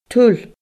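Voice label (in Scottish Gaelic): "tuil", Scottish Gaelic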